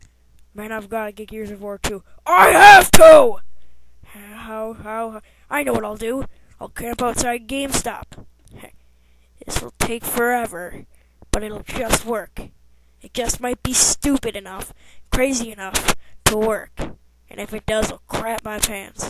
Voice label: narration